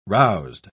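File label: "roused", British English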